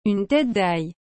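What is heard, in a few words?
"une tete dail", French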